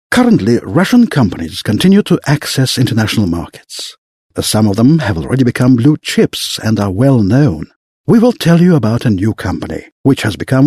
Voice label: british english male